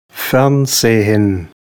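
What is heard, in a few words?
"fernsehen", German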